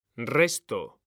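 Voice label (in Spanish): "resto", male; mekanta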